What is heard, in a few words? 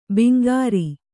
"ಬಂಗಾರ", Kannada